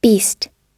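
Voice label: "beast", English; oxford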